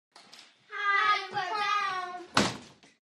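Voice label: 11 Yr Old Girls From School, kids play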